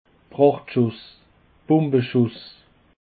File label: "prachtschuss", Alsace